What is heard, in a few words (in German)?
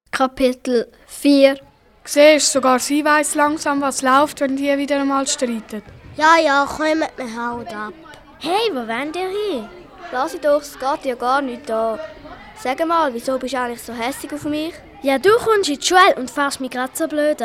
Hoerspiel Zickenkrieg; Minutengeschichten